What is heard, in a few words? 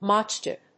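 "mod chip", English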